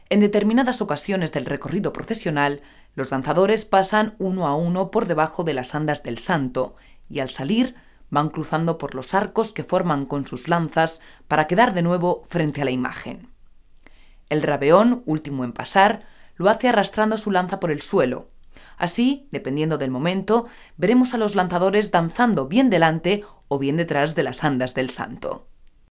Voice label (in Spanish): locutora